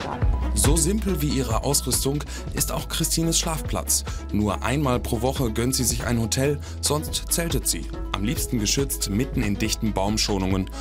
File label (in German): Vertonung TV Doku